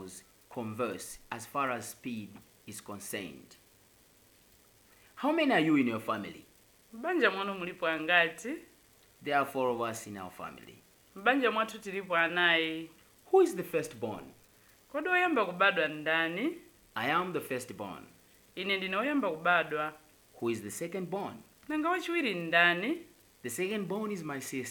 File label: Chichewa Dialogue; Malawi